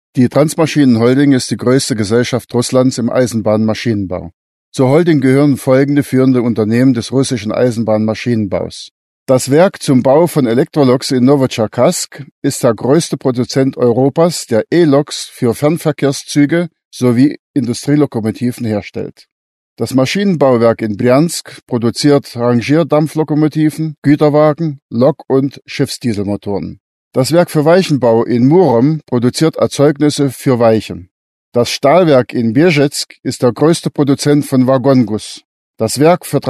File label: german male